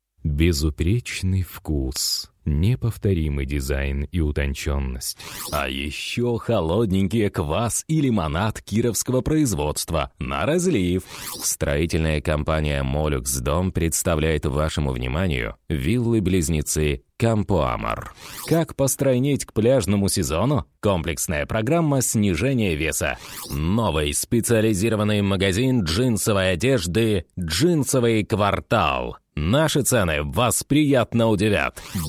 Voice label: comercial ruso

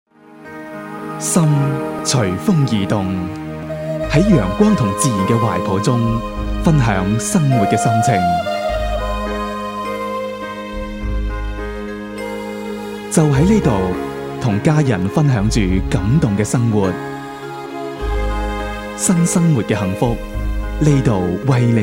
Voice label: narración chino cantonés

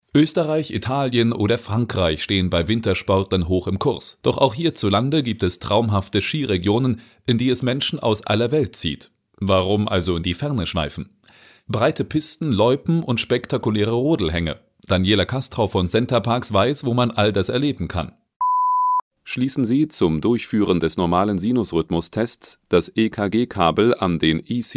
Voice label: narración alemán

